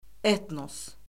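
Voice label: "etnos", Azerbaijani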